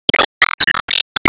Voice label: Emo Warcry

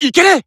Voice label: Emo Damn; Disney Star Smash; Android, iOS, Mobile

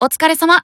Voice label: Emo Greet

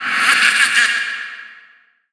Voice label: Troll Jester Taunt; Skylanders Cloud Patrol; Arcade, iOS, Mobile

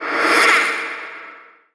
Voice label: Troll Jester Taunt

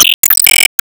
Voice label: Emo Glad

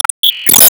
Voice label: Emo Reply

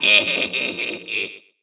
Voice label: Troll VO Success

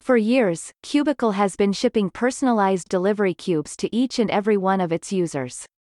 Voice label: Monologue; Avie

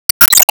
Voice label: Emo Praise

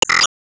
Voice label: Emo Glad

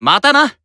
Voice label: Emo Greet

